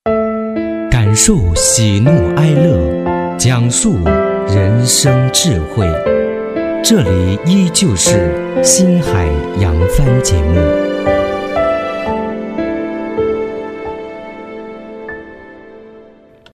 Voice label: 节目片花

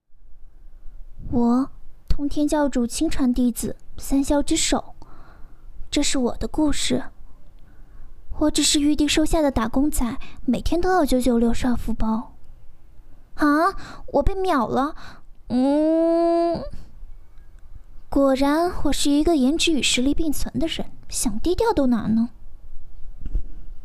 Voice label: 柔弱女声

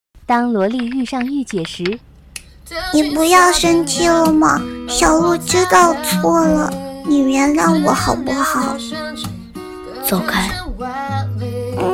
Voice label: 萝莉 御姐

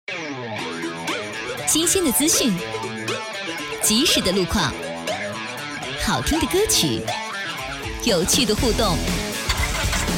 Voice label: 节目片花

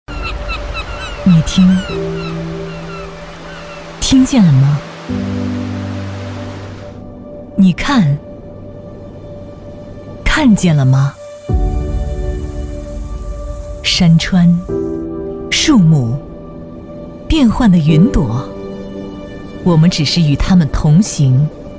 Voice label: 走心旁白女性; 女性的力量

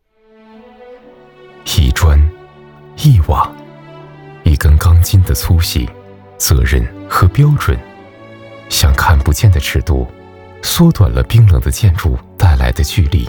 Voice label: 大气温暖人物类

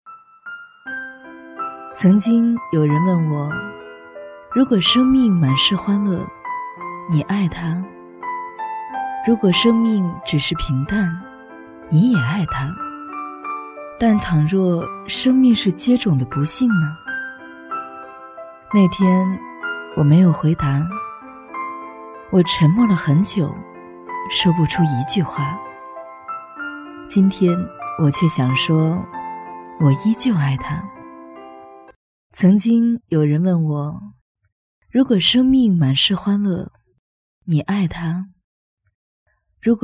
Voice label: 旁白 自然 温柔

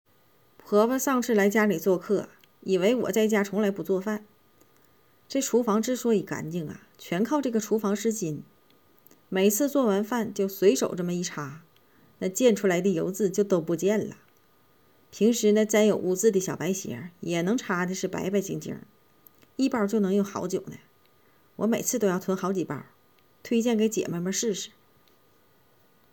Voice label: 东北腔厨房湿巾

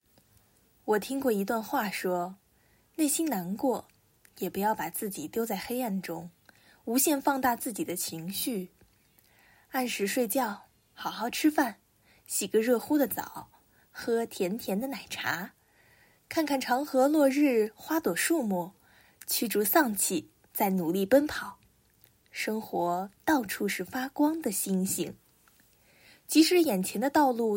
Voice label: 温柔女声

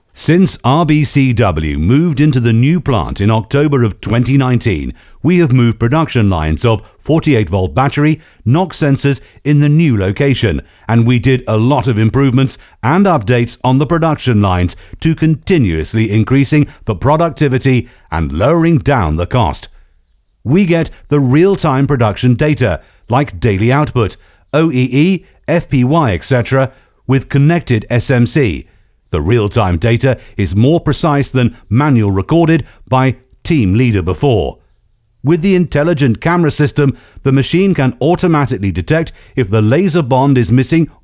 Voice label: 外籍英语英式大气宣传片

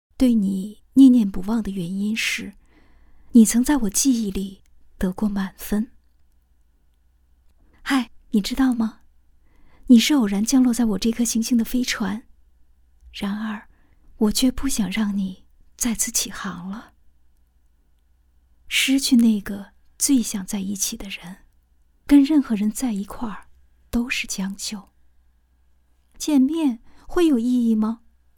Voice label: 抖音情感

